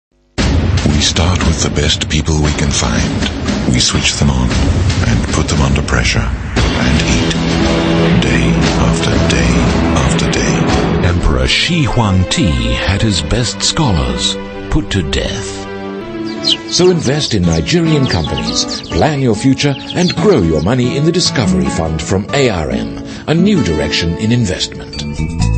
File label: Mid Atlantic AU English TVCs, Australian, Male